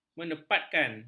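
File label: "menepatkan", Malay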